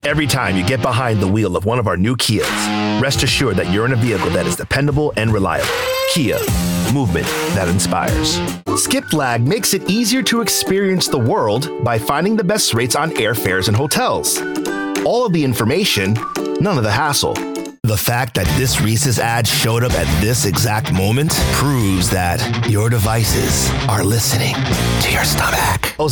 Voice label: commercial